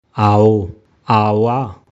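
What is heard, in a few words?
"Aoo/ aawa" Garhwali; Come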